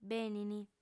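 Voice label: "benint", female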